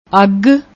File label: "aug", Punjabi